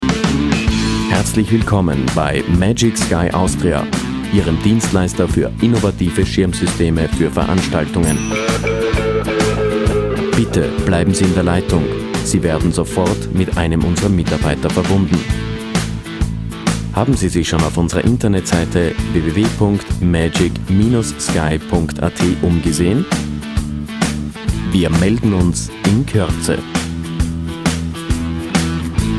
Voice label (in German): telefon; magic sky austria